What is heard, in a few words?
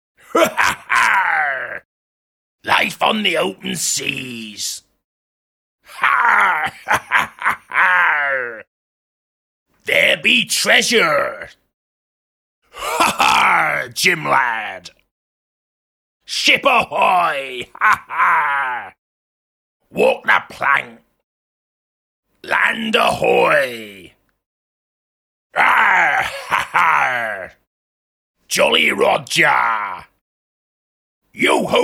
Pirate Pack, Various spoken phrases of a Pirate character.; Recorded Voice